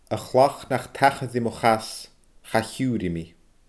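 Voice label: "a chlach nach tachair ri mo chas cha chiurr i mi", Scottish Gaelic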